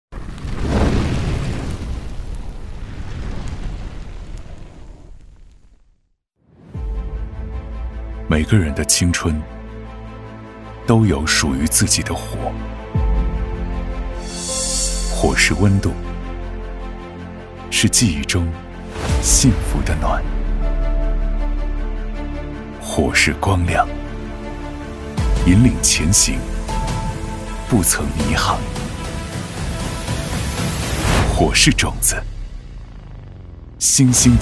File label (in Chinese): 男 宣传片; 火箭军招生